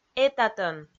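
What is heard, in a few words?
"этатӧн", Komi, female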